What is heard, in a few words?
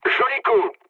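bomb success, mood low, French; War Thunder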